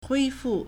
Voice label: "hui1 fu4", Chinese

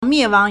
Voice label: "mie4wang2", Chinese

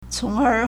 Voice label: "cóng'ér", Chinese

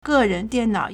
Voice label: "ge4 ren2 dian4 nao3", Chinese

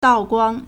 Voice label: "dao4 guang1", Chinese

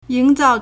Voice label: "ying2 zao4", Chinese

